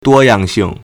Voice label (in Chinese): "duo1yang4xing4", Chinese